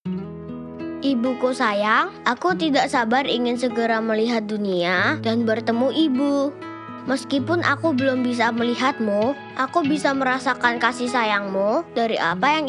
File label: INDONESIA CASUAL